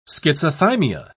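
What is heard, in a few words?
"schizothymia", British English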